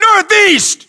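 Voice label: US direction relative northeast, battlechatter, english; localized english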